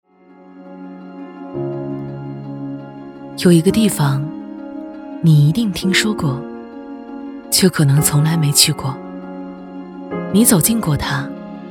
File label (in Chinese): 女-独白